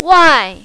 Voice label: "wai4", mandarin, female